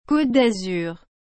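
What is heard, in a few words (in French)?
"Côte d'Azur", French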